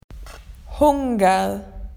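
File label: "hungry", Australian English; PictureDictionary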